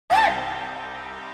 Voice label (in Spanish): Voces Hot